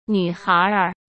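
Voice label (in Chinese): "女孩儿", Mandarin Chinese; hsk; chinese flashcards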